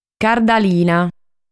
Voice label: "Cardalina", sardu

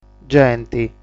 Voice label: "Genti", sardu

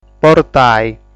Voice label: "Portai", sardu

